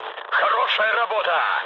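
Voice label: player killed enemy, mood low, Russian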